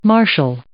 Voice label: "marshal", English

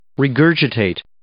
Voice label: "regurgitate", English

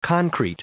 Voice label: "concrete", English

